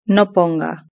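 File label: "no ponga", Spanish; verb-conjugator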